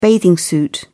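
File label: "bathing suit", British English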